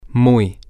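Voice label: very, Spanish, male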